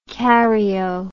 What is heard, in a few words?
"caryo", English